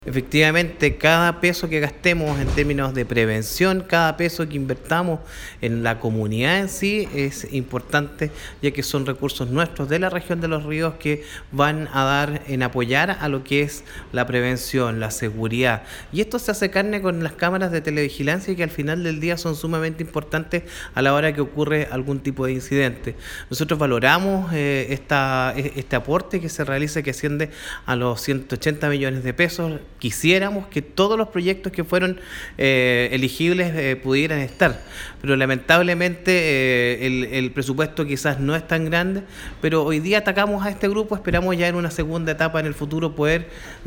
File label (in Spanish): Cuña Alarmas Comunitarias